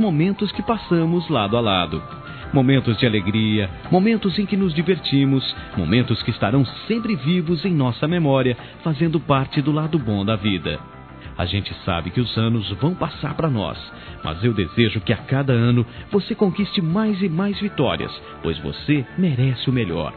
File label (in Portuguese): Aniversário Prima Masculino; Aniversários; Aniv Familiar